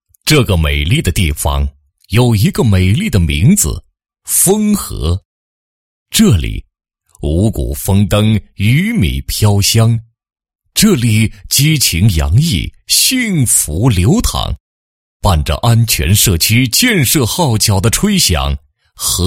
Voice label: 男 专题 专题片; 某镇专题片脚本

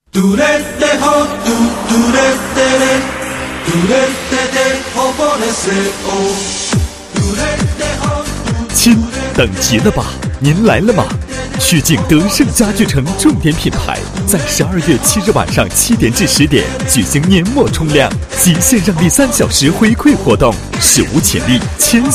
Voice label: 男 家具城 播音加音乐激情, 国语男声配音; 曲靖德胜家具城

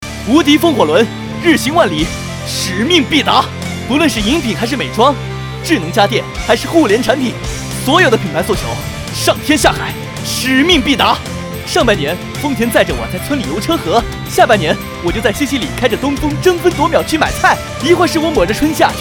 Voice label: 招商广告, 年轻激情热情

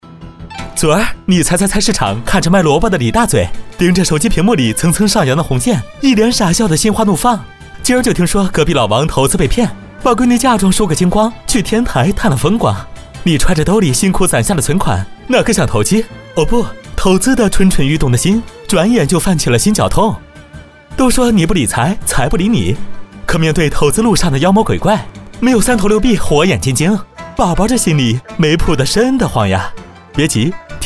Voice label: 男 风趣幽默; 飞碟说; 投资驱魔篇

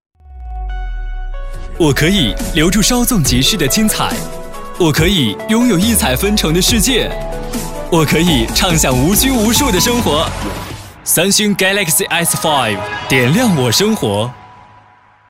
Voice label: 男-广告-时尚活力; 三星

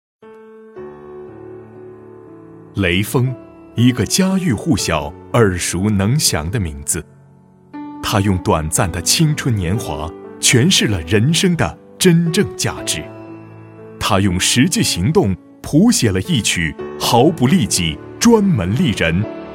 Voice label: 男 专题, 国语男声配音 大气浑厚; 雷锋

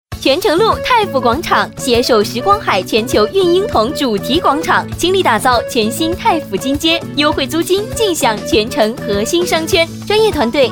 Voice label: 女 广告 房产(活泼欢乐), 年轻温柔; 国语女声配音

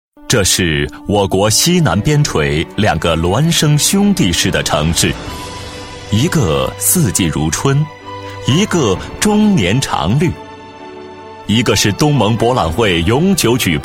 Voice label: 男 配音, 国语男声配音; 靓仔专题; 中铁二十五局

